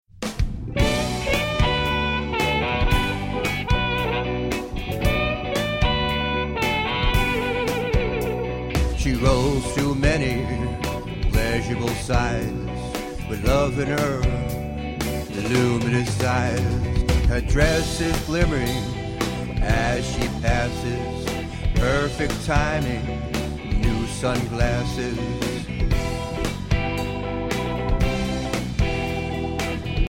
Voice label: She Whispers